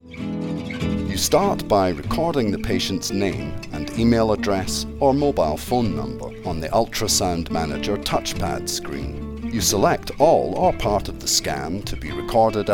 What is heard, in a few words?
Instructional